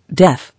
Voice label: "Deaf", English